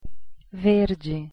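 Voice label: "verde", Portuguese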